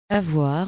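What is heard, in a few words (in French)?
"avoir", French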